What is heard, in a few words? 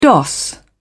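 "doss", British English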